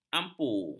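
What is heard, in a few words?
"ampu", Malay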